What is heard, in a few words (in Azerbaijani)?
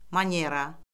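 "manera", Azerbaijani; Orfoqrafiya Lüğəti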